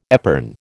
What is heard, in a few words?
"epergne", American